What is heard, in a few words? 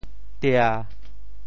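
"tia1", Teochew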